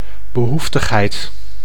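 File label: "behoeftigheid", Dutch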